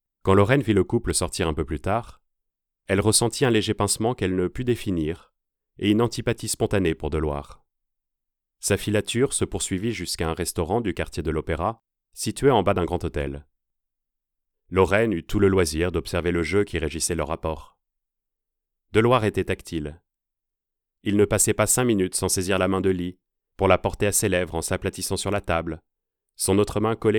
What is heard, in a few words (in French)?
livre audio